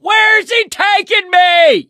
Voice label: charger running with player, survivor voice; source engine; left 4 dead 2